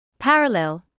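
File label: "parallel" English, British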